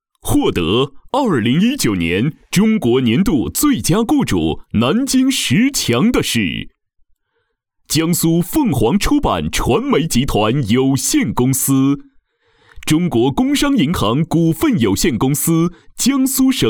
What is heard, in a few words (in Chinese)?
颁奖浑厚大气厚重; 最佳雇主